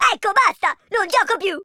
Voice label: coward, Italian